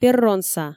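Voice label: "перронса", Komi, female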